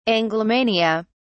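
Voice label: "anglomania", British English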